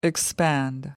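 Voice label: "expand", English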